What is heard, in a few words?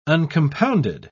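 "uncompounded", British English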